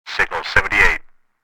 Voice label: Police radio chatter signal